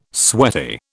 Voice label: "sweaty", British

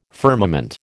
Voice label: "firmament", American

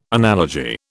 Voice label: "analogy", British

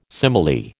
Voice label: "simile", American

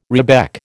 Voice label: "rebec", American

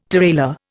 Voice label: "tirailleur", Indian

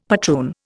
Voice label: "patroon", Indian; Dictionary

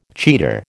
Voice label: "cheater", American

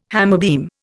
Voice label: "hammerbeam", Indian

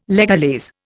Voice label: "legalese", Indian

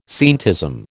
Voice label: "scientism", American

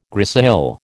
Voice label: "grisaille", American

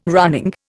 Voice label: "running", Indian

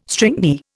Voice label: "stringy", Indian

